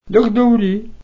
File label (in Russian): "лететь", Nanai